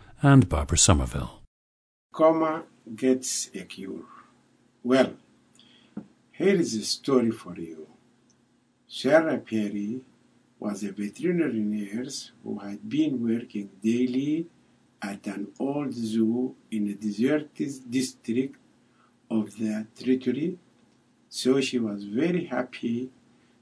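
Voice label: sudan, dialects of English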